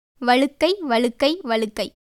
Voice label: Tamil; baldness; val̠ukkai; [vəɻukkəi]; 禿; 「はげ」; வழுக்கை